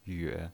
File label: "yue3", pinyin